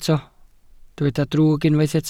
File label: "drwg", Welsh